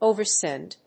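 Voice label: "over send", English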